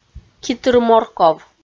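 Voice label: "ки тыр морков", Komi, female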